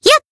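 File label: attack, Japanese; hoozuki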